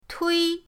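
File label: "tui", Mandarin pinyin, first tone; pinyindct-open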